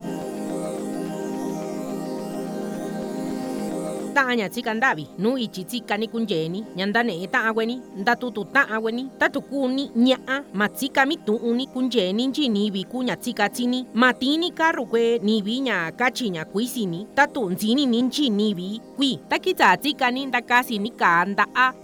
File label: MIXTECO